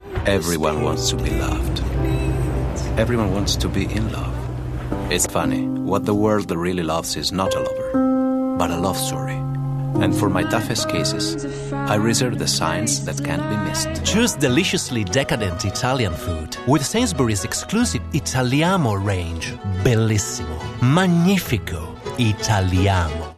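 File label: Italian English; European; Male; 20s-30s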